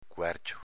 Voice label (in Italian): "cuercio", dialetto veneto; cuèrcio s.m. coperchio